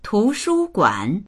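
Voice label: "tu2shu1guan3", Mandarin Chinese; 图书馆; túshūguǎn; 図書館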